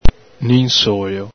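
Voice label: "ninsolo", Venetian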